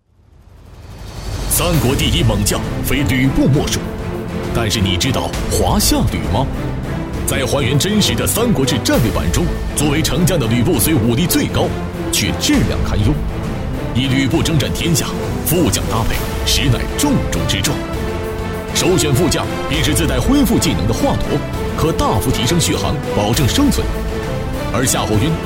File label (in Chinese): 国语男, 游戏广告; 三国志战略版手游